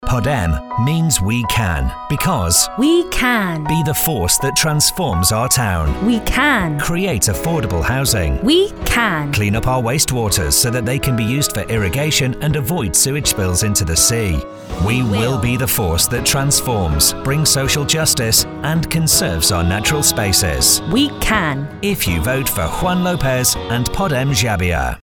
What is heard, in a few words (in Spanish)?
BayRadio anuncios leidos